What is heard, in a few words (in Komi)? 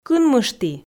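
"кынмышті", female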